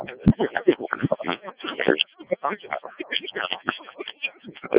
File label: decoded speech, 7177KHz